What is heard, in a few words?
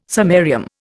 "samarium", Indian